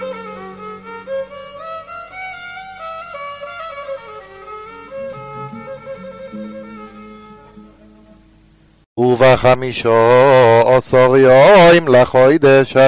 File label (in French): maftir de souccot ashkenaze; 1er jour de yom tov